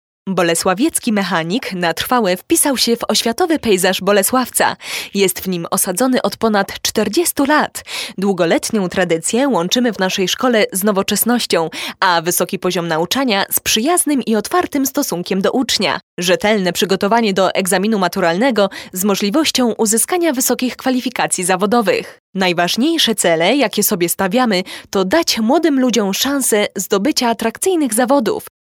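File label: Polish commercial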